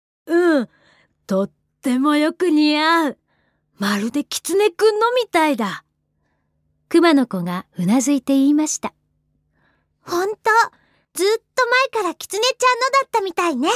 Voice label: jp female, narration